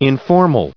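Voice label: "informal", US English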